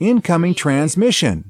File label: US male incoming-transmission; lynne publishing